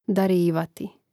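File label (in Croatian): "darivati", Hrvatski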